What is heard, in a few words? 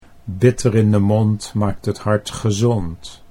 bitter in de mond maakt, Dutch